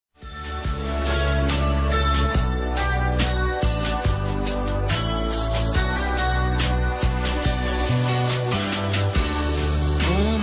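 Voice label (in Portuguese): EU TE AMO, Feminino; Amor; Telemensagens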